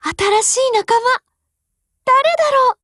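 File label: BUILD OVER Japanese; GF Alarm; UMP9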